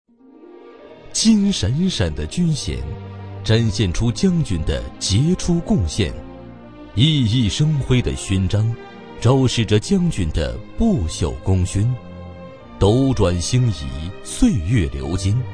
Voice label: 男 专题; 兴国将军

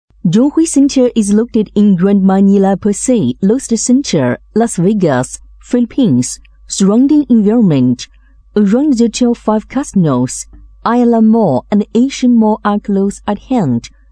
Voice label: 女 英文; 菲律宾-马尼拉东辉国际

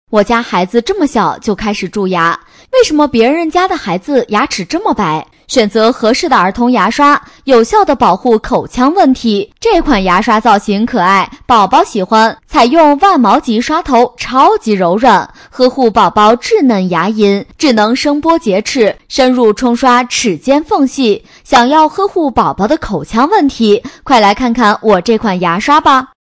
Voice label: 女 抖音视频配音 牙刷

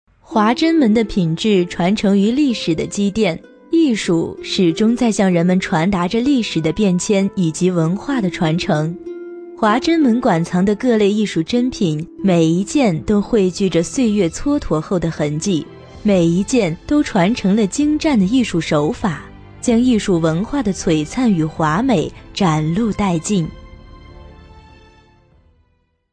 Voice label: 女 纪录片 沉稳大气

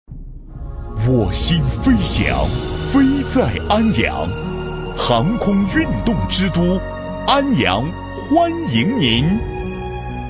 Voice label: 男 广告 形象广告; 安阳

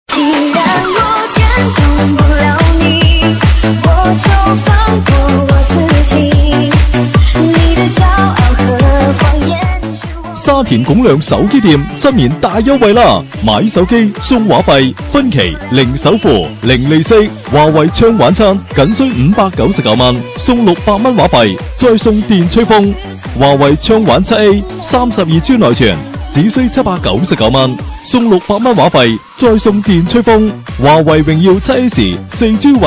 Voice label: 男 粤语 促销; 手机

